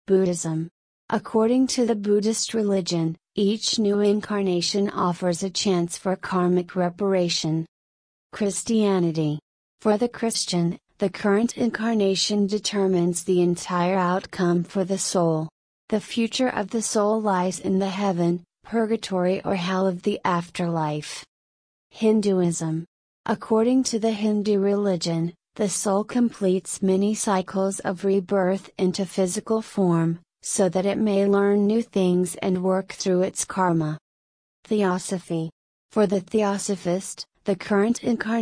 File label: substitute voice rate slow pitch medium; alice; karen